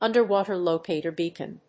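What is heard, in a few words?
"Underwater locator beacon", English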